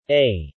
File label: "A", male